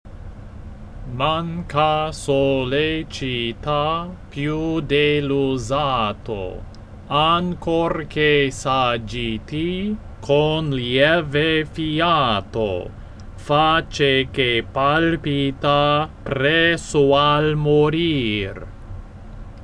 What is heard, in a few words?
pronunciation slow speed, Italian; La Scala